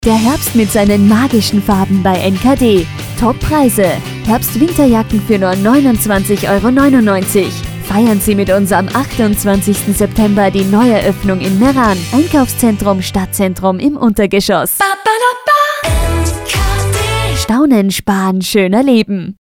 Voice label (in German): Werbespot; NKD